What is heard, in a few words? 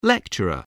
"lecturer", English